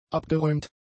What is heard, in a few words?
"abgeräumt", German; adjectives